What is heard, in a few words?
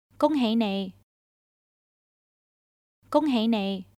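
Congratulations, Chinese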